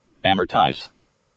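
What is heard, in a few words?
"amortize", US English; pronunciations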